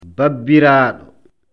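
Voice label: "babbiraado", Fula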